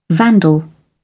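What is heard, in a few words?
"vandal", British English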